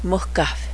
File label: bobcat, cheyenne language